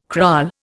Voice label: "kraal", Indian

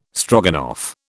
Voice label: "Stroganoff", British

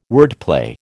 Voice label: "wordplay", American

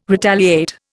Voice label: "retaliate", Indian

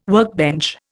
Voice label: "workbench", Indian

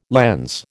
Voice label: "lens", American

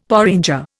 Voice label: "porringer", Indian; Dictionary

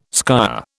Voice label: "skyer", British

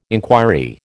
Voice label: "inquiry", American

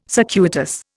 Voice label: "circuitous", Indian

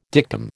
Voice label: "dictum", American

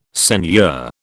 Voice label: "seigneur", British

